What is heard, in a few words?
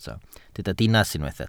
"dinas", welsh